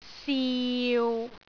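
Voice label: "siu6", Cantonese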